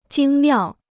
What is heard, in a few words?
"精妙", Chinese